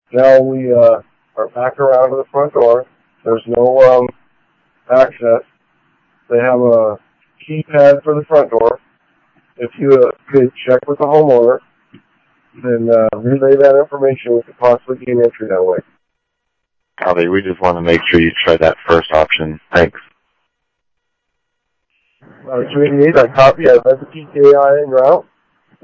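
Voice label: fire radio dispatch, Phoenix Fire; H-Scottsdale; PHXFD K7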